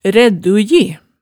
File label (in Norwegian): "rædduji", Hemsedal